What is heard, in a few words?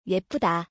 "yeppeuda", Korean